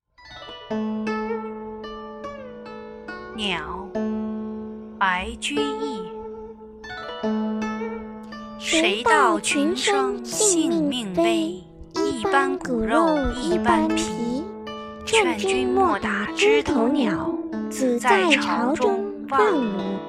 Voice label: Poem, Birds; tang of poetry